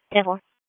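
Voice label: Raleigh Police Southeast District Dispatch, p25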